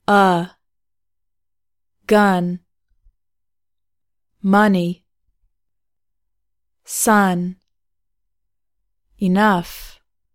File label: english vowels